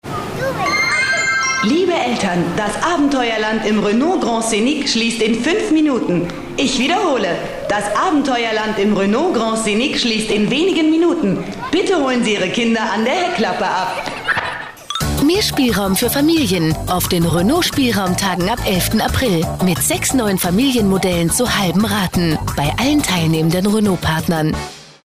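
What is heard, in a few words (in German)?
Sprecherin, female speaker, German; WERBUNG; RENAULT: Abenteuerland Grand Scenic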